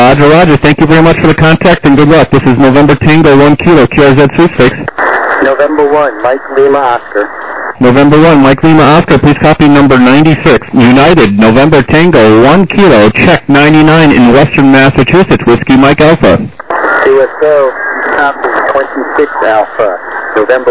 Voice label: SSB LSB 7MHz

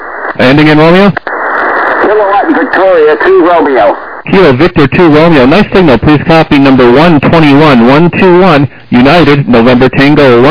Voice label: SSB LSB 7MHz

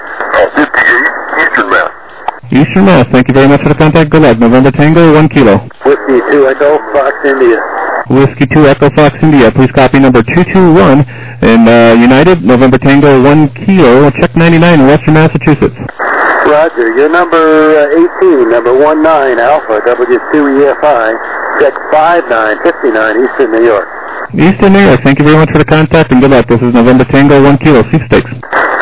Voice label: SSB LSB 7MHz

